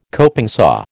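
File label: "coping saw", American English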